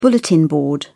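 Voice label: "bulletin board", British English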